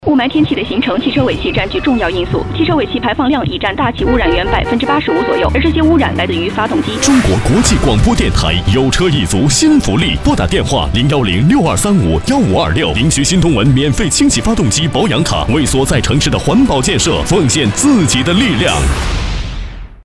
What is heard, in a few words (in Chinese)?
电台 广播广告; 中国国际广播